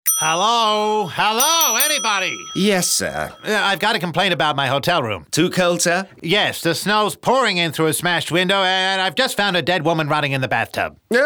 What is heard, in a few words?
accents